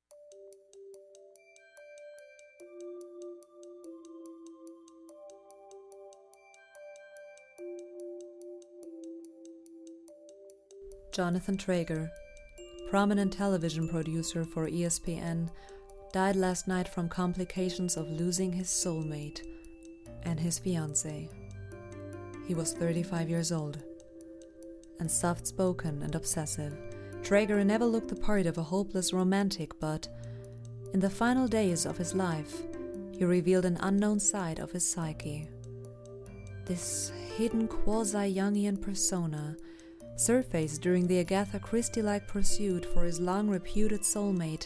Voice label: US movie character letter; SERENDIPITY